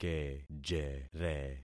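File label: "kejere", concatenated syllables; Affixing experiment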